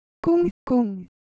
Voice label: Korean, Ideo Phones

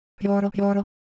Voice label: Japanese, ideophones; Shape

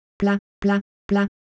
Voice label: Ewe, ideophones; Motion

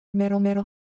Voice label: Japanese, ideophones; Color Visual

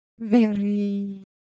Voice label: Ewe Shape, ideophones

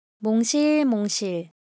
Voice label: Korean, Ideo Phones; Shape

